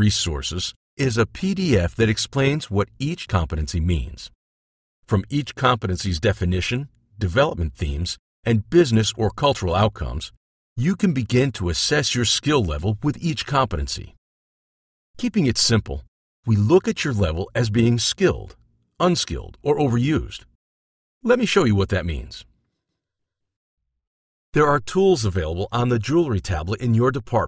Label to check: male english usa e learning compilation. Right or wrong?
Right